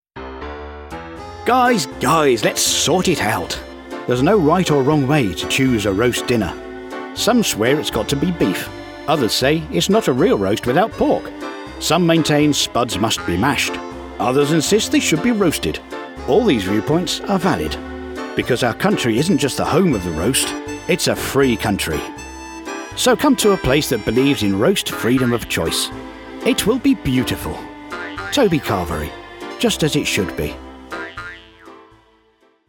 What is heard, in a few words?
Ad; British voice actor; a voice that has been described as "a warm hug"